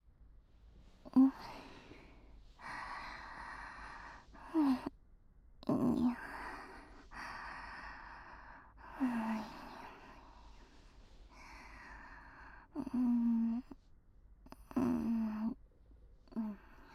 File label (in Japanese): 添い寝